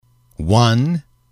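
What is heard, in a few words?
"one", English, male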